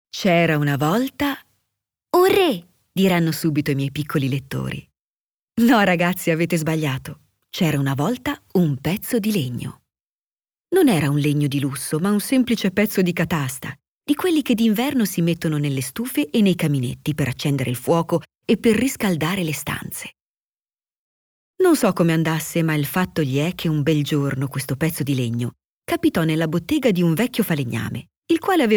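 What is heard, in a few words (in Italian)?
Favola, voci femminili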